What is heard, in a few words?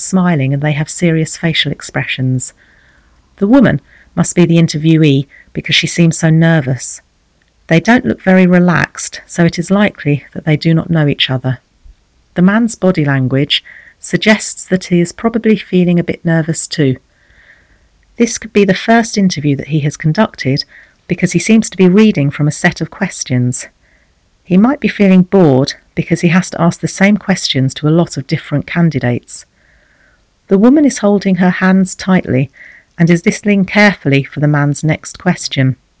formal conversation recording; ESOL Nexus